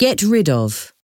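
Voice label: "get rid of", English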